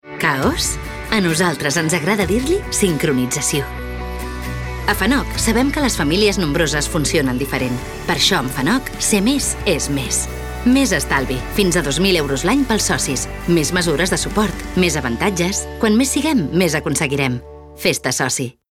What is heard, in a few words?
female catalan monney comercial; ideasonora